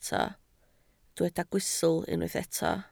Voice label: "gwystl", Welsh